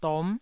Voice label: "dom9", taiwanese syllables